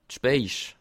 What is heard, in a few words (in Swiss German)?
"speich", alsace